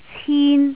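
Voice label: "cin1", Cantonese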